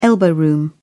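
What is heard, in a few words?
"elbow room", British English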